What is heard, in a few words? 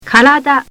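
"karada", Japanese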